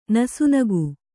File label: "ನಸುನಗೆ", Kannada